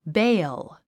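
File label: "bale", English; 発音 béil ベィヲ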